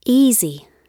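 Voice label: "easy", British English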